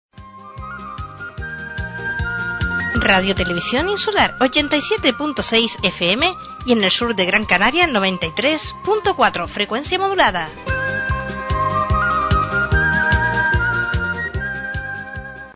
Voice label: Promo Radio Television